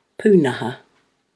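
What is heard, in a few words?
"punaha", Māori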